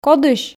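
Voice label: "кодысь", Komi, female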